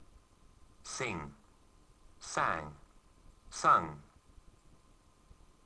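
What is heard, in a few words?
"sing", English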